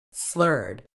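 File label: "slurred", British English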